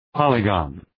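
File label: "polygon", English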